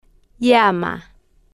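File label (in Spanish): "llama", Spanish, female, slow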